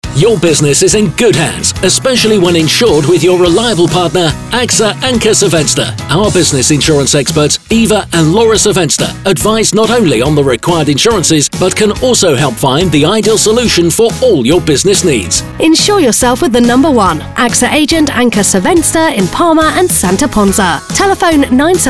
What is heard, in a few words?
Insurance Commercial; AXA